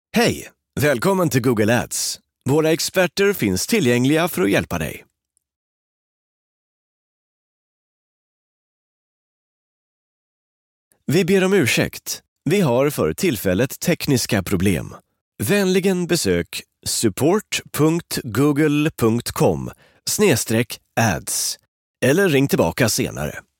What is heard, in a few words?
Swedish voice telephone IVR